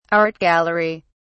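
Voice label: "art gallery", British English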